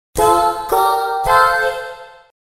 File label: voice logo